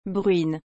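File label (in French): "bruine", French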